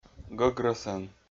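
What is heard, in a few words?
"гӧгрӧсӧн", Komi, male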